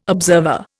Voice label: "observer", Indian

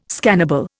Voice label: "scannable", Indian

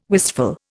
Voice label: "wistful", Indian

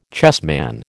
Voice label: "chessman", American

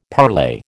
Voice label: "parlay", American

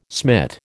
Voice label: "smit", American

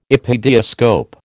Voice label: "epidiascope", American

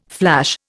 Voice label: "flash", Indian

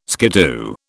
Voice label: "skiddoo", British

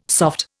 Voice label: "soft", Indian

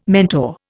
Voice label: "mentor", Indian

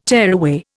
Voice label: "tearaway", Indian

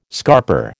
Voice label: "scarper", American

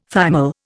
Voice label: "thymol", Indian

